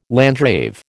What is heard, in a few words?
"landgrave", American